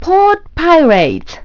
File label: "port pirates", announce voice; Nintendo; July 2020 Gigaleak